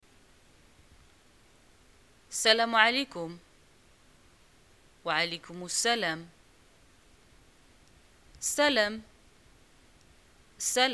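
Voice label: greeting hi and response, Moroccan Arabic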